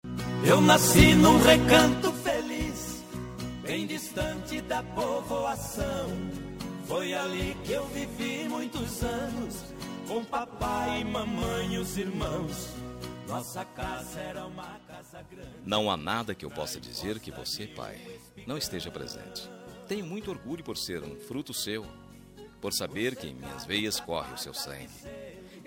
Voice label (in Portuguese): masc, Dia dos Pais – Voz Masculina